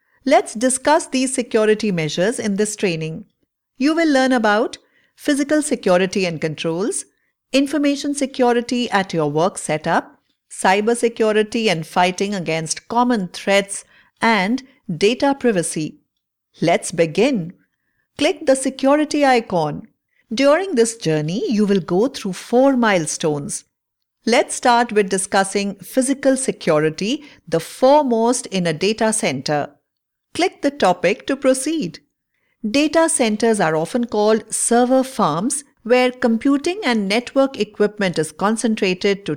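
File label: safety VO English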